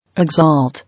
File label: "Exalt", English